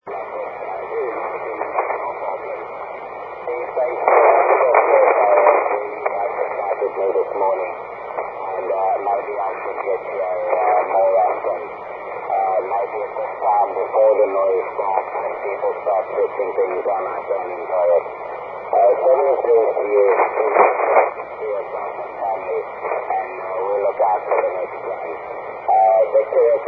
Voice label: 40m ssb

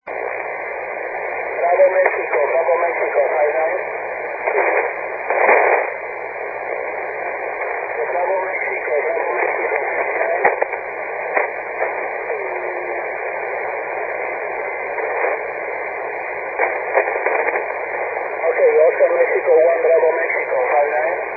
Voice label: 40m ssb; AY1ZA; South Orkney Island on 40m SSB.

